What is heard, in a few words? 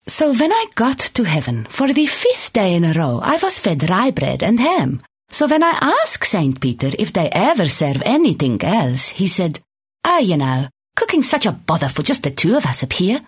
Russian Australian